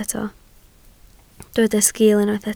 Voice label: "sgil", Welsh

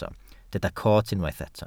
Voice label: "coot", Welsh

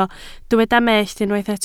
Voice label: "mellt", Welsh

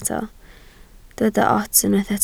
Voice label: "ots", Welsh

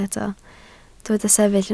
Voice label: "sefyll", Welsh

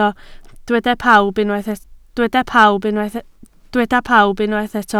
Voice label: "pawb", Welsh

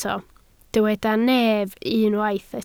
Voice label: "nef", Welsh

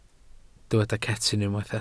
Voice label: "cetyn", Welsh

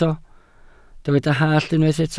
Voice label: "hallt", Welsh

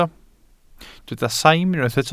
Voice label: "saim", Welsh

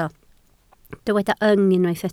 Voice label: "yng", Welsh

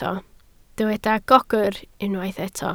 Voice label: "gogr", Welsh

